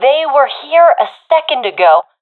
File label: lost sight enemy, professional fem; vo; Counter Strike Model Pack; CS:GO